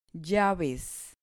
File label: "llaves", Spanish